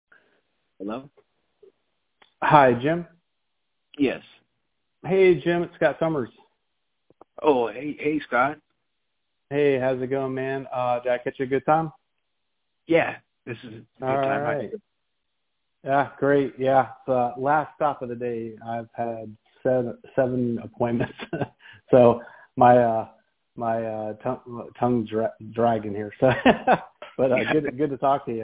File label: Phone roleplay; agent training; orientation; sales training